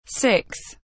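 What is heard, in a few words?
"six", English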